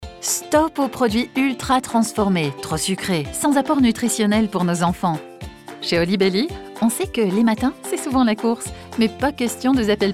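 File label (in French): Voix off femme pub tonalité douce; holibelly; motion design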